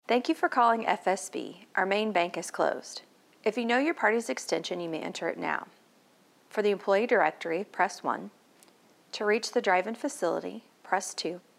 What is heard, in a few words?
hold message; fsb hold recordings